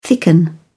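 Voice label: "thicken", British English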